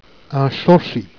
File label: "chorchi", Jèrriais